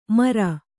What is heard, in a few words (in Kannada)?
"ಮೆರೆ", kannada